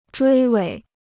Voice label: "追尾", Chinese